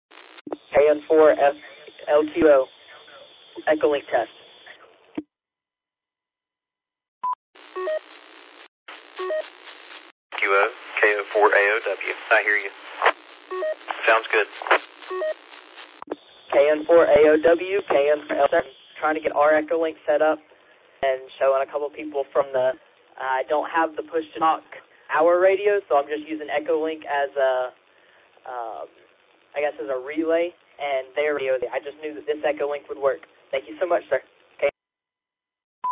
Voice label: qso rec simplex logic